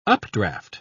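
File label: "updraft", British English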